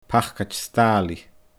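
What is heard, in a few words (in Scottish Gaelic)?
"pacaid staalaidh", Scottish Gaelic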